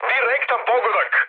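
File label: Serbian bomb success mood high, speech; War Thunder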